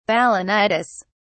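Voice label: "balanitis", British English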